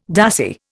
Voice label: "dassie", Indian